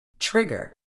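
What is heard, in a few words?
"trigger", British English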